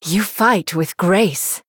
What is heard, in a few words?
Female Human Well Played; en